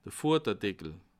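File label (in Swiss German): "vorderdeckel", Bas Rhin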